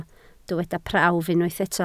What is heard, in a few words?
"prawf", Welsh